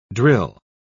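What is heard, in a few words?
"drill", English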